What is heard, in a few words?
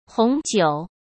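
"红酒", Mandarin Chinese; chinese-flashcards; hsk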